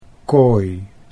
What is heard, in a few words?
"kooi", Dutch